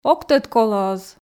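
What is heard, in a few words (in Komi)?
ог тӧд колӧ оз, Komi, female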